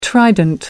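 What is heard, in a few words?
"trident", English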